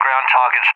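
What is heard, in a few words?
"ground targets", English, UK; DCS; Allied Flight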